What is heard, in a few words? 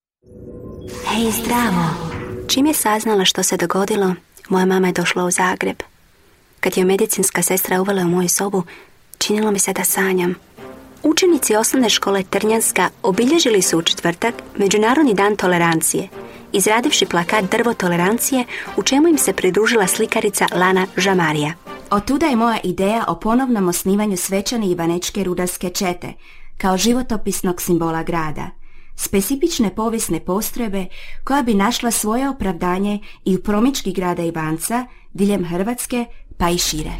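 Croatian General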